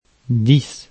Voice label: "dix", lecture fr

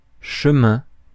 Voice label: "chemin", francais; Mots francais phonemes

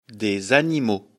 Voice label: "animaux", lecture fr

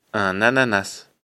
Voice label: "ananas", lecture fr

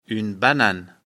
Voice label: "banane", lecture fr

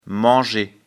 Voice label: "manger", lecture fr

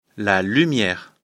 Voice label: "lumiere", lecture fr

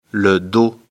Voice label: "dos", lecture fr

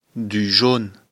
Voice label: "jaune", lecture fr

